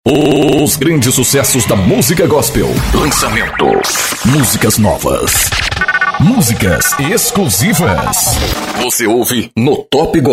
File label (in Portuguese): vinhetas